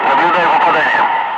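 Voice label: Russian speech, Ka-50 Wingman; target hit; DCS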